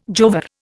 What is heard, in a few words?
"jowar", Indian